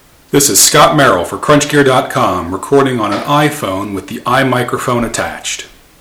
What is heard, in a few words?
iphone mic